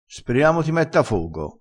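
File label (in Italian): "fogo", vocabolario dialettale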